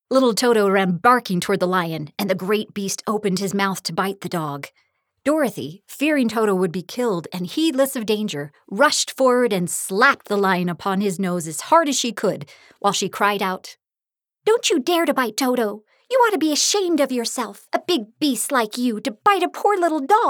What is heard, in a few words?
Character Narration; Wizard of Oz